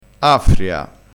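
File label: "affria", Italian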